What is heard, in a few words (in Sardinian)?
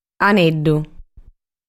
"Aneddu", Sardu